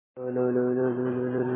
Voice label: Voice Note